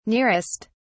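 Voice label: "nearest", British English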